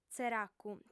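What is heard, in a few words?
"tzeracu", lingua sarda, female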